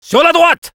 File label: head right, fr